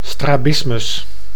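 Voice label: "strabismus", Dutch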